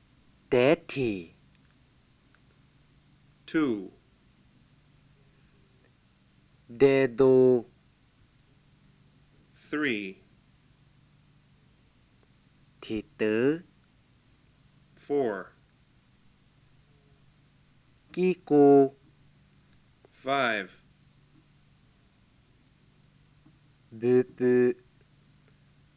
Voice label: Lisu

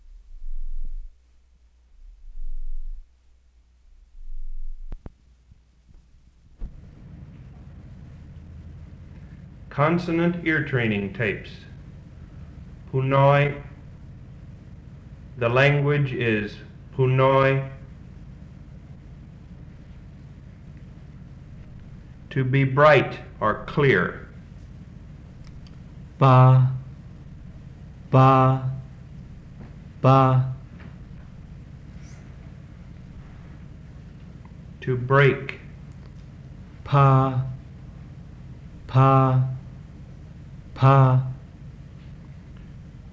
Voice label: Phunoi

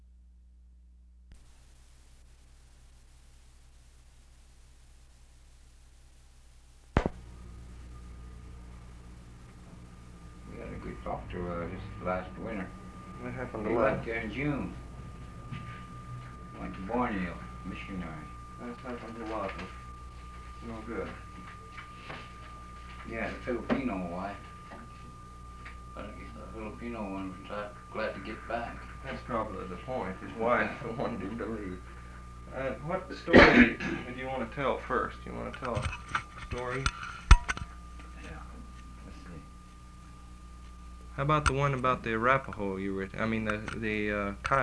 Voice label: Cheyenne stories

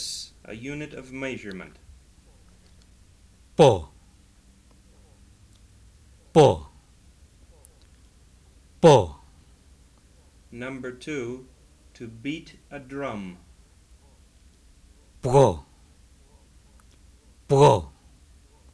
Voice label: Sgau Karen Consonant Contrast

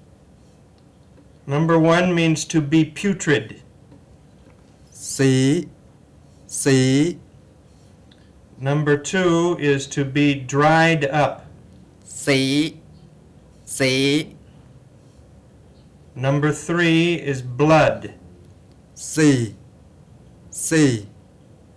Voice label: Mpi Tone Creaky voice contrasts

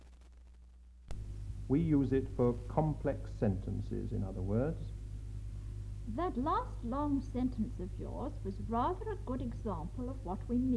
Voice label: Phunoi

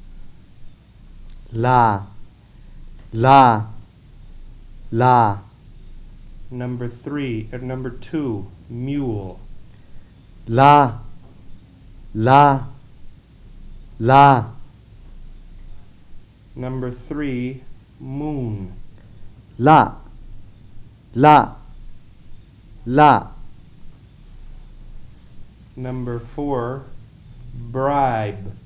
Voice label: Rangoon Burmese

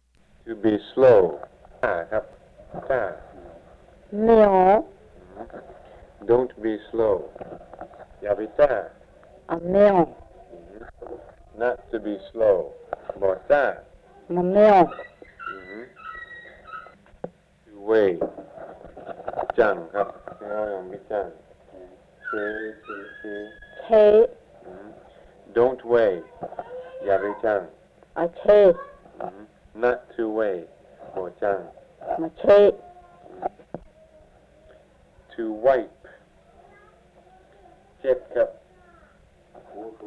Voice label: MPI MI Wordlist and Tone

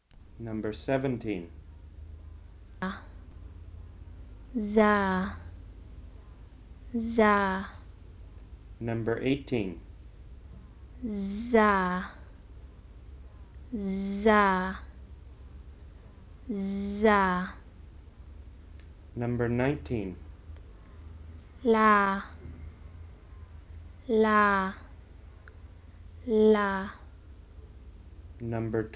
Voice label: Malay Pattani

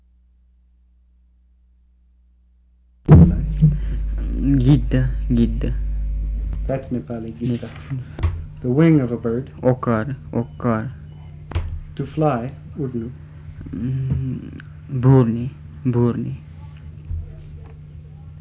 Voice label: Kham Comparative Word list

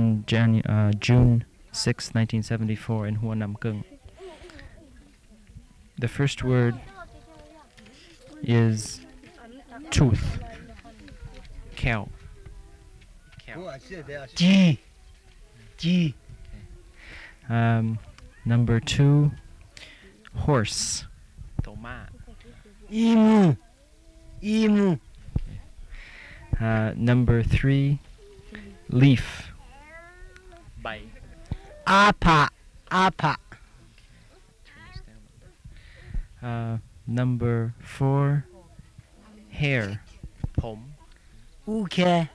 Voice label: Lahu

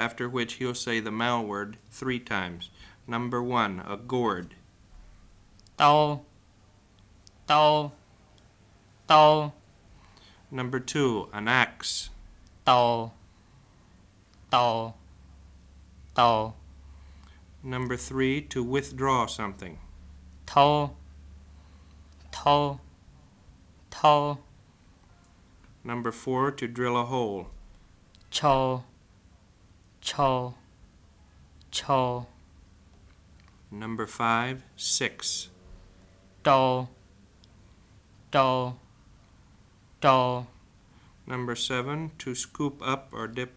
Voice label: White Miao Consonant Contrast

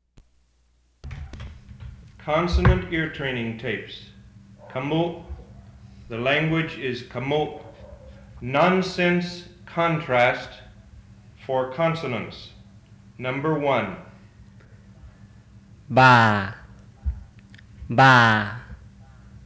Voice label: Khmu

